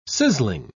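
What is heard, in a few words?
"sizzling", English word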